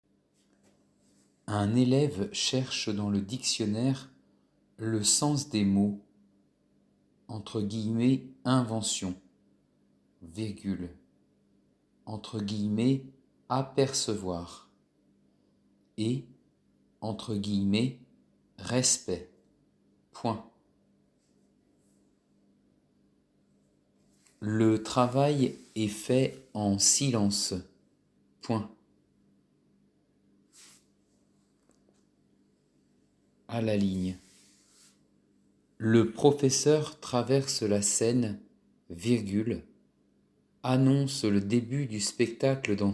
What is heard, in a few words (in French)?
Dictée flash phrases